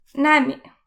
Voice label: goodbye, Võro

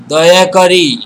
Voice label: please, odia

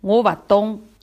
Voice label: no understand shanghainese

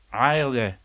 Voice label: "fire", Manx

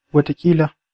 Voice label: maybe, hausa

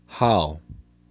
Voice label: hello, lakota; Hau; Lakota Sioux

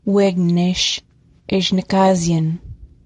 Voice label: name, ojibwe

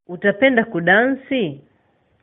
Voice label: dance, swahili

